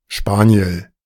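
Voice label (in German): "Spaniel", German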